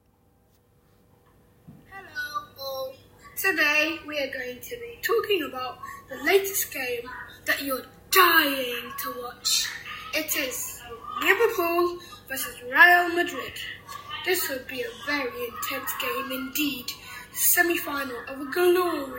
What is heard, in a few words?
Podcast